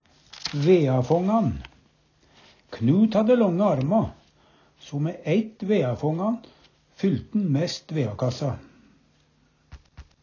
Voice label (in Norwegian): "veafangan", Numedalsmål; Uvdal